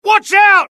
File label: alert, dialogue UK; mohaa